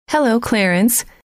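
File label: "hello", female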